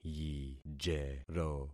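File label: "yijero", concatenated syllables; Affixing experiment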